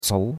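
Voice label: "cou2", Chinese; Cours-de-Chinois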